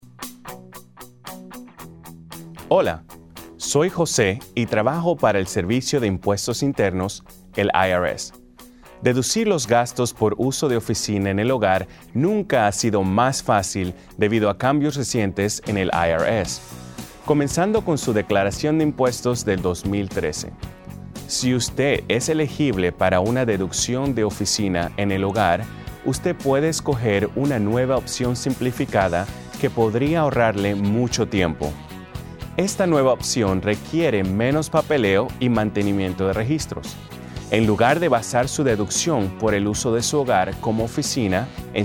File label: Simplified Home Office Deduction Spanish